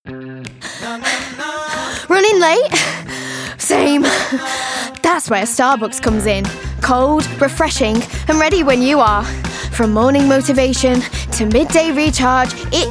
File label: Manchester, Young, Fun, Warm, Energetic; Starbucks